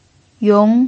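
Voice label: "yong2", Mandarin Chinese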